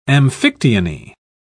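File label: "amphictyony", British English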